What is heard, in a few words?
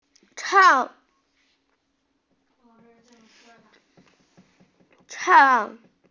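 "chang4", Mandarin Chinese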